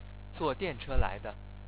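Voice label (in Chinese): "zuo dianche lai de", Chinese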